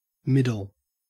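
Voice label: "middle", Australian English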